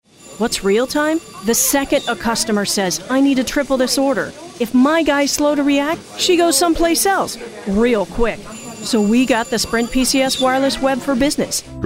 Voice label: Commercial